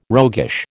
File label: "roguish", American